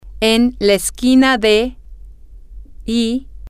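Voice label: at On The Corner of X And Y, female, spanish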